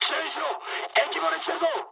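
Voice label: damaged water mood high, speech japanese; War Thunder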